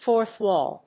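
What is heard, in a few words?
"fourth wall", English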